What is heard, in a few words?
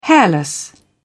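"hairless", British English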